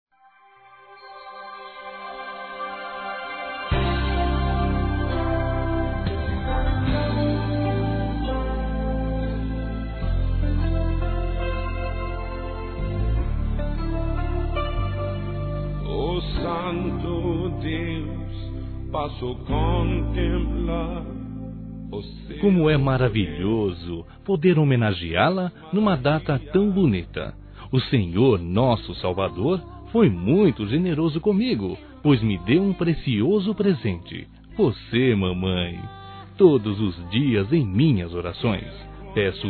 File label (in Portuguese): MÃE EVANGÉLICO M, Dia das Mães, Masc; Telemensagens 2017